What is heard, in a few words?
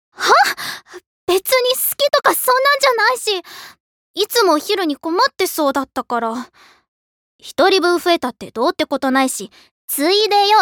voicesample Tsundere